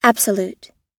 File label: "absolute"